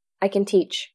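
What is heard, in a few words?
VoiceActing Spanish, female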